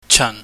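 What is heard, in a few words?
"chan", Cantonese, low falling tone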